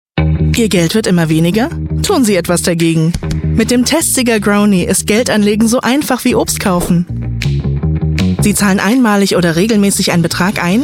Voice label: german voice over female narrator

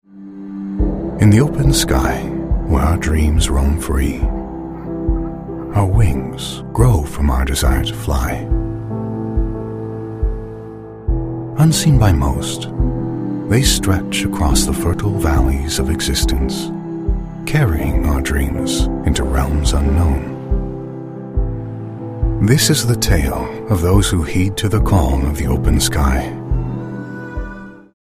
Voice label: english american voice over male narrator

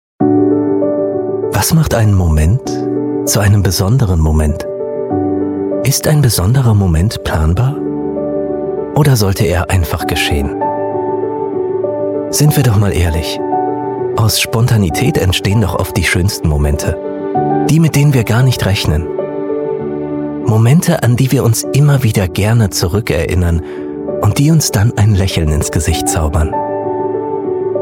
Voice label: german voice over male narrator

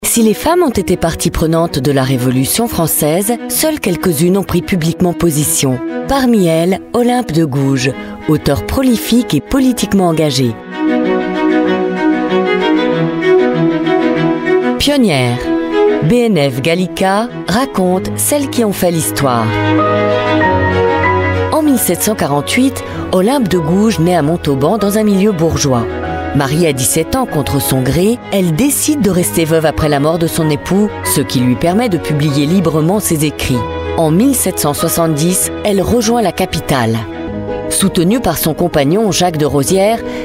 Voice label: french voice over female documentary

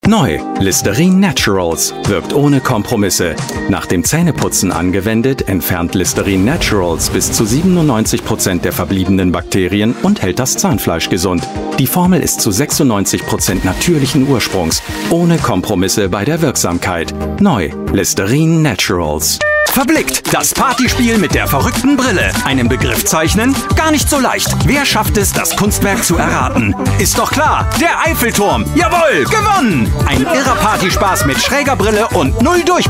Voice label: german voice over male commercial

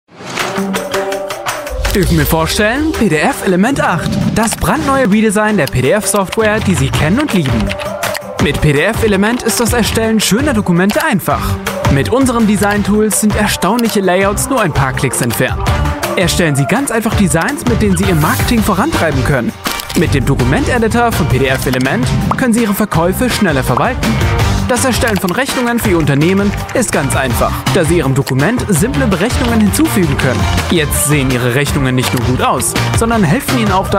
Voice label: german voice over male narrator

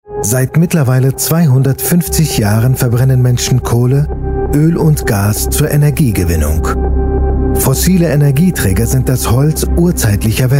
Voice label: german voice over male narrator

